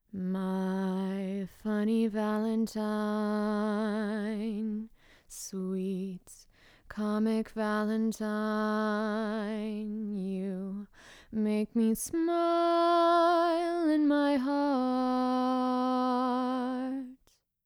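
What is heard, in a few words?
mic compare vocals ribbon back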